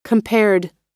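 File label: "compared", American English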